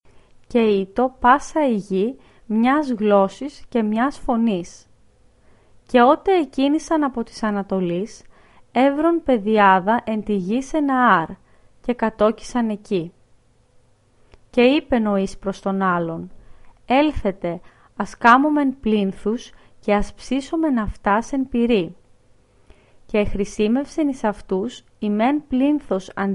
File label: greek